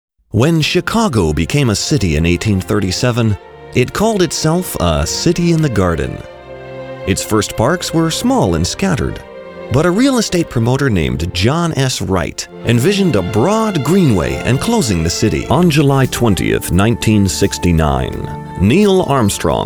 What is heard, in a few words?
Narration